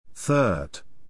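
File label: "third", English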